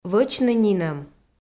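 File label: "вӧчны нинӧм", Komi, female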